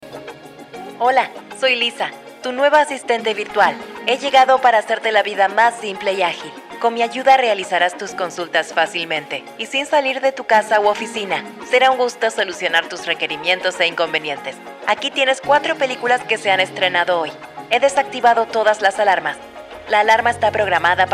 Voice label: spanish latin american audio guides, latin american spanish voice actors; Buenos Aires, Argentina